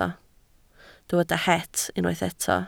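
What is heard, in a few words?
"het" Welsh, spoken word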